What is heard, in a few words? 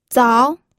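"zao2", Mandarin Chinese pinyin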